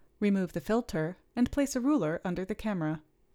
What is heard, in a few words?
Gong Voiceover